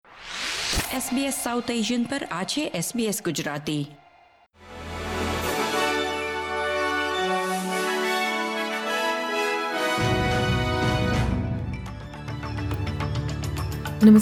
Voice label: gujarati news bulletin; SBS Gujarati